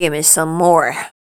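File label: vocal female, Dance Vocals, spoken words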